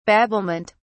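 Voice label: "babblement", British English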